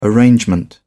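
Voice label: "arrangement", British English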